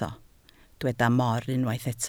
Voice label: "mor", Welsh